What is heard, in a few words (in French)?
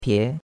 "pie2", chinois; Cours-de-Chinois